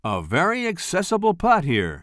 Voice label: commentator